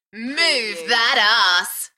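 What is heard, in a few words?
UK female, Enthusiastic, DJ vocals; Move that arse; beanstalk audio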